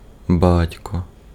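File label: Ukrainian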